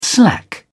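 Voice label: "slack off", British English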